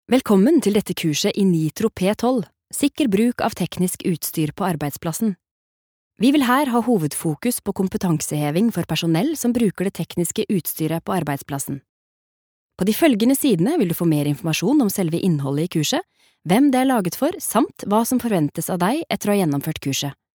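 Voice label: Norwegian e-learning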